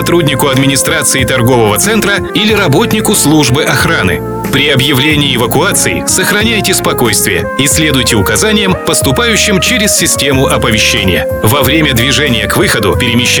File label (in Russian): Ролик о пожарной безопасности лифт эскалатор мужской